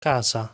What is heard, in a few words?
"Casa", Italian